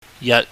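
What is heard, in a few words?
"yat", Cantonese, low level tone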